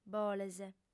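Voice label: "boles", lingua sarda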